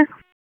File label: Police Southeast District Tac; wake p25